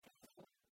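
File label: "тэ зон", Komi, female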